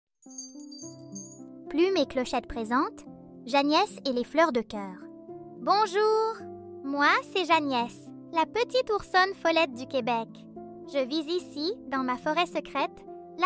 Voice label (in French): extrait livre audio enfant; Jeanniesse l'oursonne du Québec